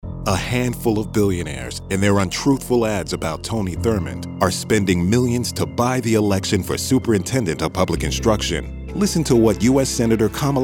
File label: Political Ad; Tony Thurmond